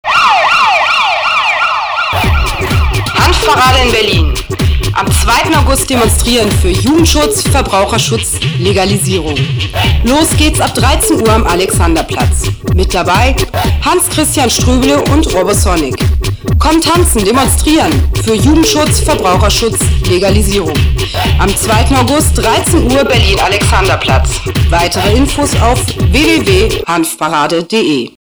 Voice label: radio jingle; hanfparade 2008